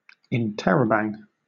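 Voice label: "interrobang", British English; pronunciations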